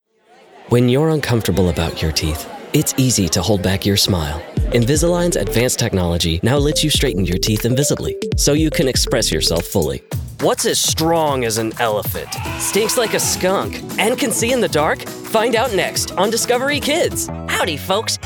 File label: english american commercial; Vereinigte Staaten